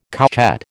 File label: "couchette", American